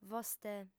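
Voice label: "vostè", lingua sarda, female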